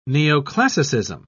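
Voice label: "neoclassicism", British English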